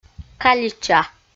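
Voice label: "калича", Komi, female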